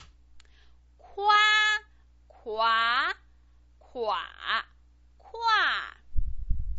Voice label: "kua", Chinese pinyin